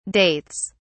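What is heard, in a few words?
"dates", English, British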